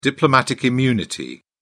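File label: "diplomatic immunity", British English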